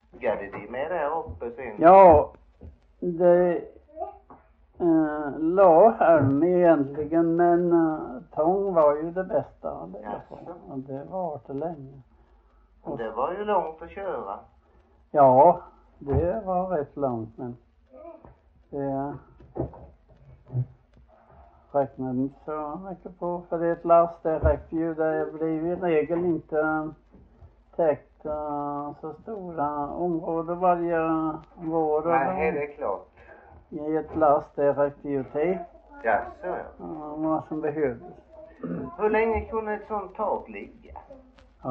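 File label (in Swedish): Frillesås Halland, Svenska sagor dialekter; Folkminnen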